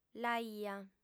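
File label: "l'aia", female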